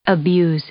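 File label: "abuse", English; verb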